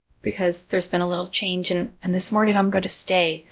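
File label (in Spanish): Voice Acting, es, female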